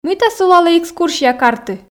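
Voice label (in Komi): мыйта сулалӧ экскурсия карті, Komi, female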